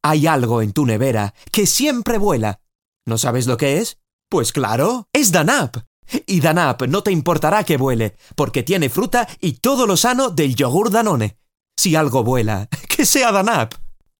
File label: SPANISH